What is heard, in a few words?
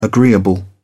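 "agreeable", British English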